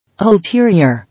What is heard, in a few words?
"Ulterior", English